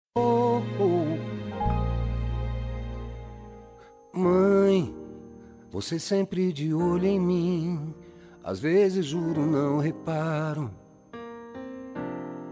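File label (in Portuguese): dia das mães female filha, Dia das Mães – Para minha Mãe – Voz Feminina; dm fem filha; Telemensagens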